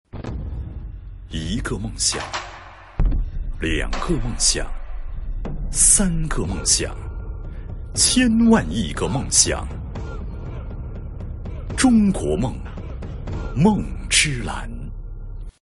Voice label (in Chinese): 男-广告; 广告试录未播出